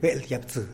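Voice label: "beeldyapsï", type-of-snake, Tlacochahuaya Zapotec; animals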